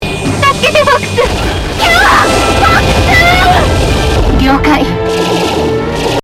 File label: Japanese; Assault; Orbital Gate